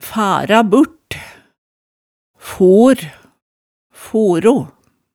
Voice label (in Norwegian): "fara burt, for, foro", hemsedalsmaalet